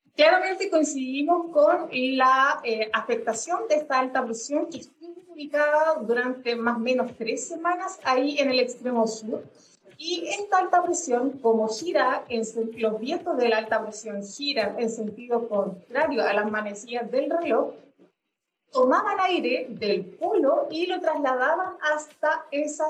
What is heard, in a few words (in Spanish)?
Clima vocals